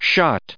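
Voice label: "shot", English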